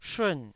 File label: "shun4", taiwanese Syllables